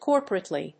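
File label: "corporately", English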